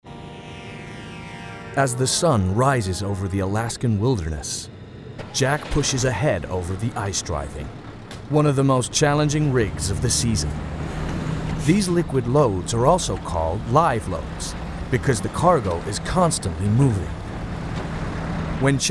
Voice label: narrative; Ice Road Trucker